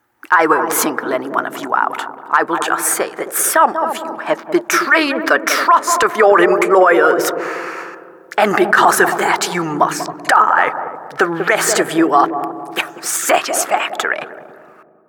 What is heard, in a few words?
announcer mission begins 60s